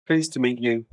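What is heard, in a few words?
Voice Acting es M, Spanish, male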